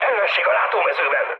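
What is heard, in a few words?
Hungarian enemy detected mood high, speech; War Thunder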